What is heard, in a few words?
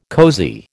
"cosy", American